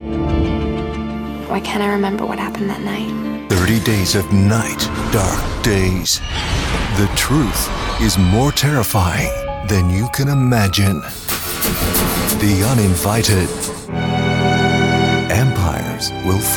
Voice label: American trailer montage